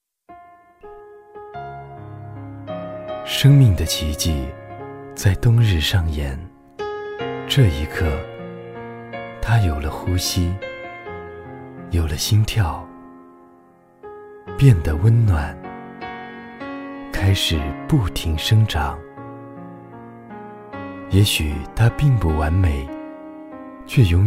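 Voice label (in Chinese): 温情舒缓家居; 宜家家居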